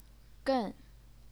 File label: "gen4", chinese pinyin